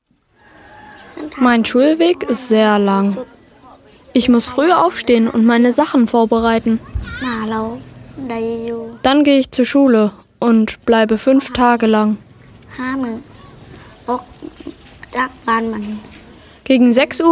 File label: Voiceover